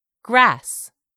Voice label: "grass", English; 3000 words

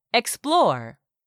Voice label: "explore", English; 3000 words

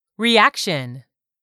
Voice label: "REACTION", English; 3000 words

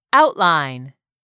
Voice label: "OUTLINE", English; 3000 words

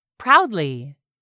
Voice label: "proudly", English; 3000 words

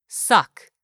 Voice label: "suck", English; 3000 words

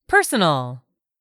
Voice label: "PERSONAL", English; 3000 words

